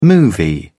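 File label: "movie", British English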